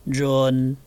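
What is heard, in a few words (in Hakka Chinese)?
"rhun4", 客語 海陸腔; 雲; 永; 熨; 運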